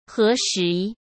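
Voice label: "何时", Mandarin Chinese; chinese-flashcards; hsk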